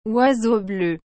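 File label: "oiseau bleu", French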